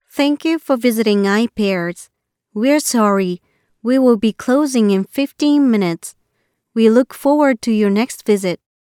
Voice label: en normal